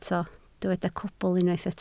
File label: "cwbl", Welsh